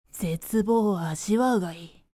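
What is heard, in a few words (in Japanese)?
女性 zetubou wo ajiwau ga ii, 低音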